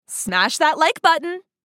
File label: Smash that like button social media female